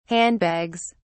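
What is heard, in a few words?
"handbags", British English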